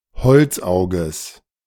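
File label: "Holzauges" German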